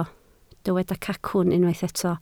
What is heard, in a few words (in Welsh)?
"cacwn", Welsh